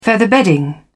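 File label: "featherbedding", British English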